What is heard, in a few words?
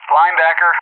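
"Linebacker", English; JTAC; AirDefence; DCS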